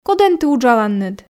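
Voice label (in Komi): кодӧн ті уджаланныд, Komi, female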